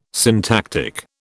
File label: "syntactic", British